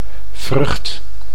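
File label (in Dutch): "vrucht", Dutch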